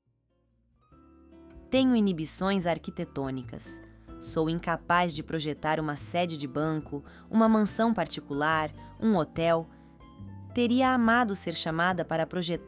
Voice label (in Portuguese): narracao; citacao inibicao